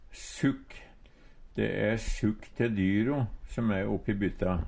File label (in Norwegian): sukk, Numedalsmål; Substantiv inkjekjønn